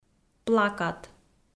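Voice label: "плакат", Komi, female; audio dict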